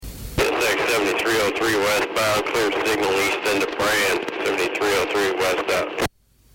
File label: East Brand clear; CSX 7303